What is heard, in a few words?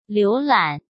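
"浏览", Mandarin Chinese; hsk; chinese flashcards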